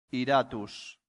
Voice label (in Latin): "iratus", Latin; santillana